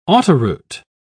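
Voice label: "autoroute", English, British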